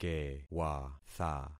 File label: "kewatha", concat syllables; Affixing experiment